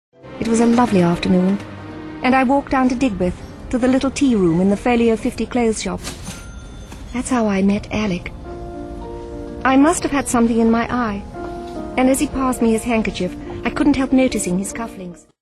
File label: romantic, readings